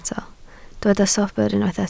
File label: "sobr", Welsh